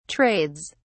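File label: "trades", British English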